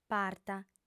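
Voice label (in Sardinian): "parta", female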